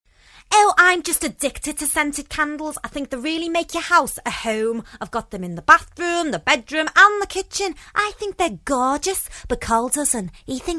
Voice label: liverpudlian